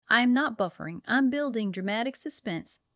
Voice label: Smooth and expressive, good for warmer flows where reassurance matters.; expressivesmoothreassuring